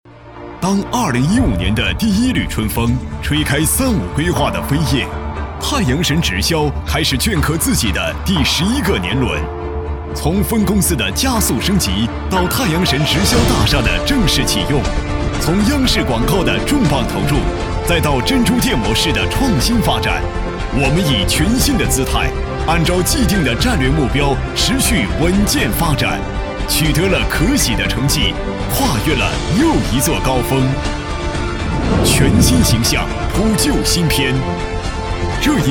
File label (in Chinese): 专题 企业宣传, 国语男声配音; 太阳神